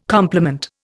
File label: "compliment", Indian